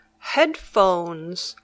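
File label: "headphones", English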